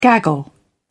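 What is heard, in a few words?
"gaggle", British English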